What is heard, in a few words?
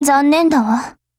LOWMOOD JP